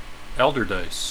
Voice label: "ALDERDICE" modern